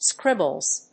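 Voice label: "scribbles", English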